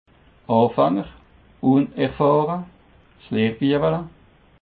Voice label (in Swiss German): "anfaenger", Alsace